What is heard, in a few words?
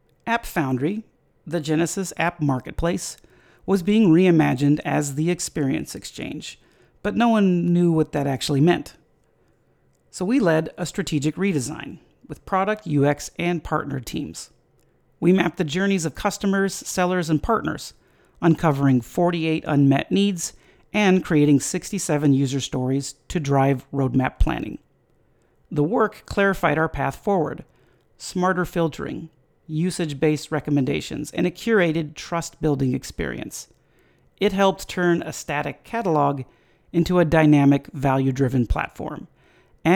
voicetrack